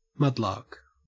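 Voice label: "mudlark", Australian English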